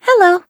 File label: hello female